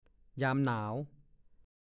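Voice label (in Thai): "ยามหนาว" ภาษาโคราช; ฤดูหนาว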